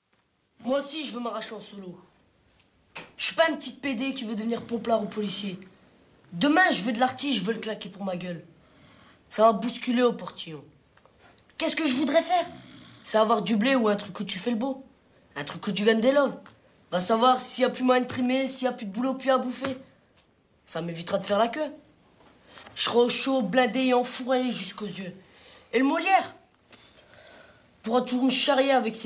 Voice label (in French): monologue